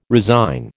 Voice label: "resign", American